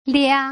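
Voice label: "lia", Putonghua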